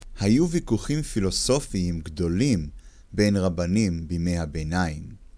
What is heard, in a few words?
"medieval", Hebrew; medieval times